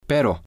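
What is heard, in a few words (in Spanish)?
"pero", male, Spanish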